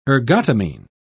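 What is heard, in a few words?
"ergotamine", British English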